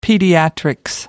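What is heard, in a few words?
"pediatrics", English, British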